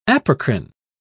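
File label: "apocrine", British English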